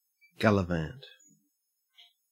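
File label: "gallivant", Australian English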